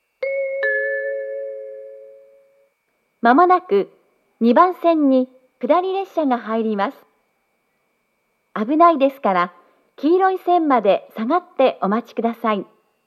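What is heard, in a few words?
platform 2 down approaching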